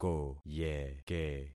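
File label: "koyeke", concatenated syllables; Affixing experiment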